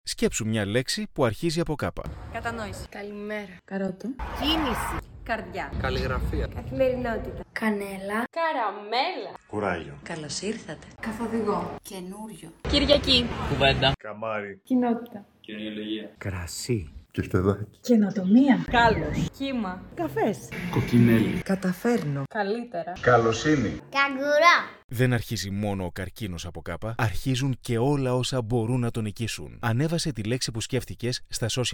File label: radio spot